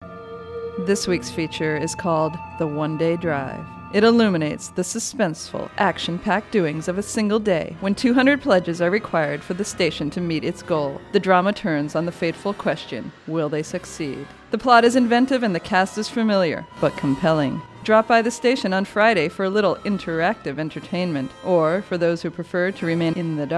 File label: promo; KBBI